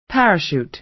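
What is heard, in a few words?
"parachute", American English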